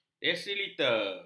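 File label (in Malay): "desiliter", Malay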